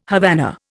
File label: "Havana", Indian